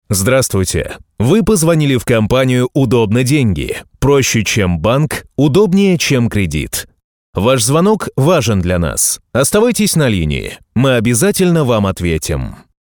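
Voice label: Russian corporate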